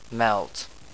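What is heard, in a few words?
"melt", English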